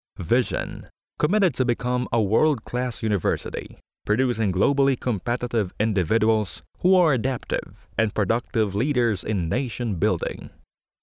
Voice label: English narration; zertifizierter Synchronsprecher